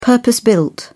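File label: "purpose built", British English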